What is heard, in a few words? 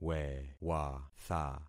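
"wewatha", concatenated syllables; Affixing experiment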